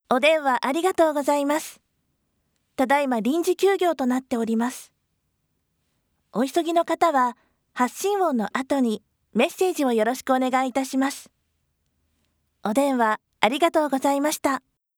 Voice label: voice announce; rinjigyugyou